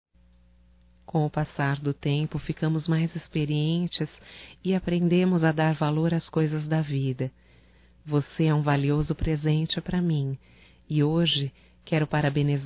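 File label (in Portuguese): female, Telemensagens Aniversarios; ANIV IRMAO(F)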